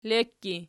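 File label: "lekki" nominative, Archi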